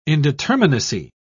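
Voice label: "indeterminacy", British English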